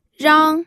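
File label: "rang", Mandarin Chinese, first tone; pinyin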